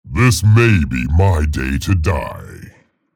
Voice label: vo chatter negative; scalebearer; respawn

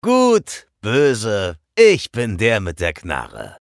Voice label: chatter neutral; eisen

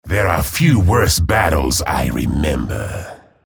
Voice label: chatter negative; respawn

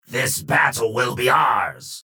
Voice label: vo chatter positive; strogg infiltrator; frag

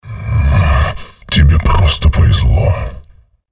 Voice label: chatter negative; visor; respawn

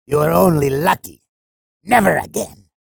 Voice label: chatter negative; sorlag; respawn

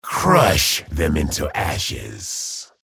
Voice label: chatter positive; frag

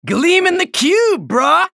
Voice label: chatter neutral; anarki

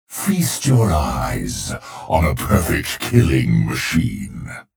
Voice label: menu chatter; clutch; frag

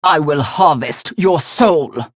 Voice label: chatter positive; unholy paladin

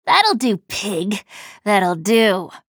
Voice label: chatter neutral; slash

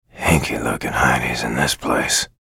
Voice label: chatter neutral; bj blazkowicz

